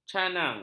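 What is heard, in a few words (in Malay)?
"canang", Malay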